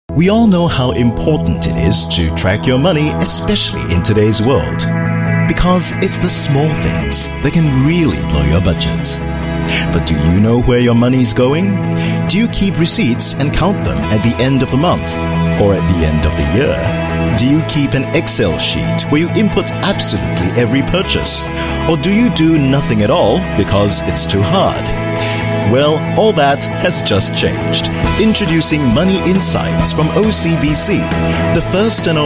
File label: Asian English; OCBC Money Insights